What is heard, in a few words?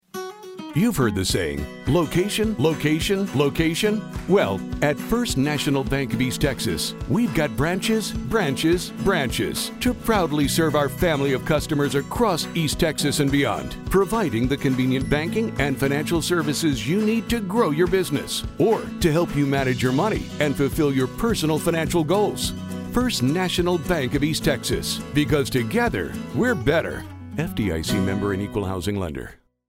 Radio Spot